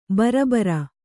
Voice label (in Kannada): "ಭರಭಾರಿ", Kannada